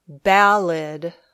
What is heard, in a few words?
"ballad", English